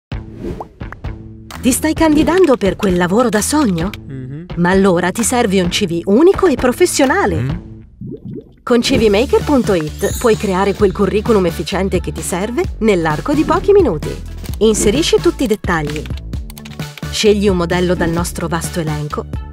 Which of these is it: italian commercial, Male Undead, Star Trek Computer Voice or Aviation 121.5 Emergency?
italian commercial